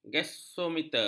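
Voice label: "gasometer", Malay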